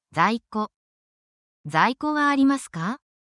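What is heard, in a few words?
Japanese word, essential Japanese phrases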